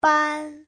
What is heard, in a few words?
"ban1", Chinese